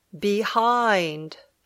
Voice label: "behind", English

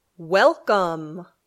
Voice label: "welcome", English

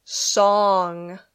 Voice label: "song", English

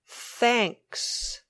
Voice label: "thanks", English

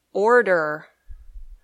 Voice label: "order", English

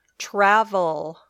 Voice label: "travel", English

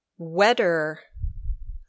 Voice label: "wetter", English

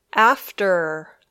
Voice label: "after", English

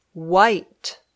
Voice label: "white", English

